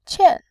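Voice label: "qian", Mandarin, tone 4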